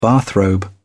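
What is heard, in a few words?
"bathrobe", British English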